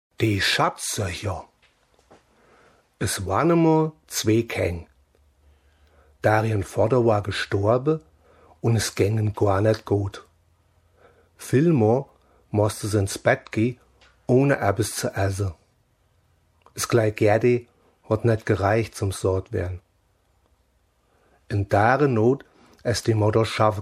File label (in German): Osthessisch